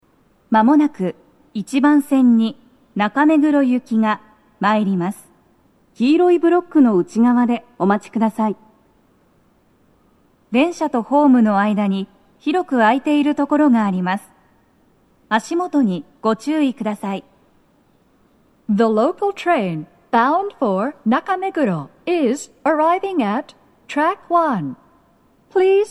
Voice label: 接近放送, 女声, 六本木駅; １番線 中目黒方面; 放送は「中目黒行」です。; 日比谷線

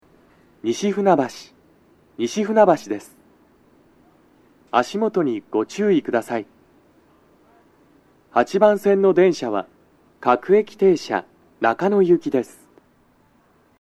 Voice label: 到着放送 男声, 各駅停車 中野行; 8番線 中野・三鷹方面; 収録者:こたけ

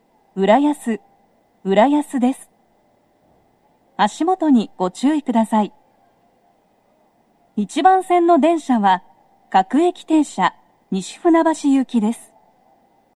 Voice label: 到着放送, 女声, 放送は「各駅停車 西船橋行」です。; 1番線 西船橋・東葉勝田台・津田沼方面; TOA円型での収録です。

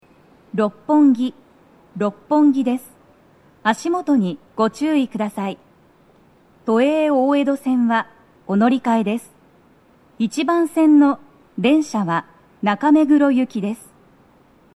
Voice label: 到着放送, 女声, 六本木駅; 1番線 中目黒方面; 放送は「中目黒行」です。

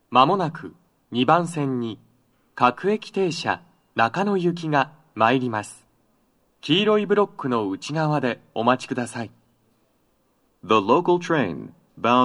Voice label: sekkin, 接近放送 【男声】; 2番線 中野・三鷹方面; 放送は「各駅停車 中野行き」です。

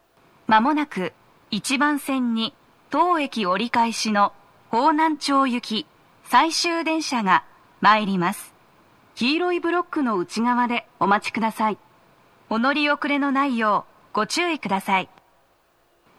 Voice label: 接近放送 女声; 池袋; １番線 荻窪・方南町方面; 放送は「方南町行き 最終電車」です。

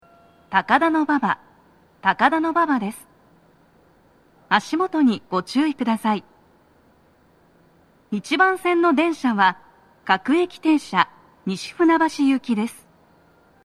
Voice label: 到着放送, 女声, 足元注意喚起放送が付帯されており, 各駅停車 西船橋行; 1番線 西船橋・東葉勝田台・津田沼方面

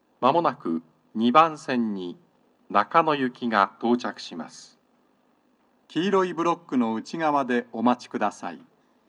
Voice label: sekkin, 接近放送 【男声】; 2番線 中野・三鷹方面; 放送は「各駅停車 中野行き」です。

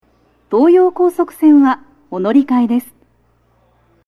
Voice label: 扉閉め放送は、「東葉高速線は、お乗換えです。」; 扉閉め放送の、乗り換え路線パーツを抽出した被り無し音声を載せてみました。; 乗り換え放送; 収録者:こたけ

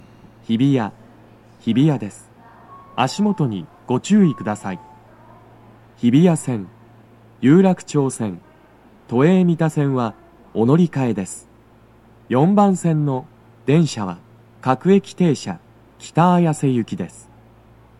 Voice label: 到着放送 男声, 各駅停車 北綾瀬行; 日比谷駅; 4番線 綾瀬・北綾瀬・取手方面

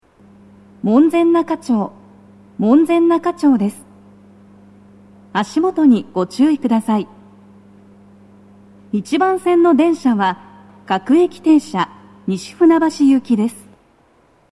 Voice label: 到着放送, 女声, BOSE天井型での収録です。; 1番線 西船橋・東葉勝田台・津田沼方面; 足元注意喚起放送が付帯されており; 放送は「各駅停車 西船橋行き」です。

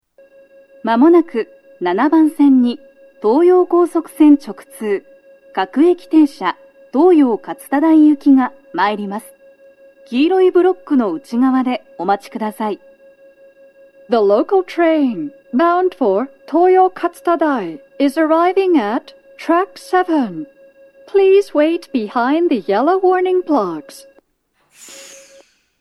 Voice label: 接近放送 女声, 各駅停車 東葉勝田台行; 7番線 中野・三鷹・東葉勝田台方面; 快速 の通過待ちはありません; 収録者:こたけ

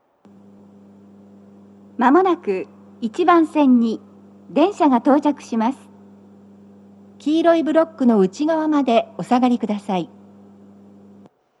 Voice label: 接近放送, 簡易放送, BOSE天井型での収録です。

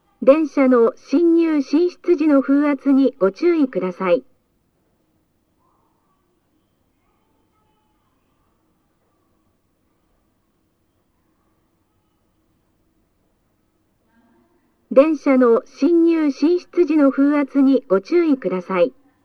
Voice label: 風注意啓発放送, 女声; 木場; BOSEでの収録です。

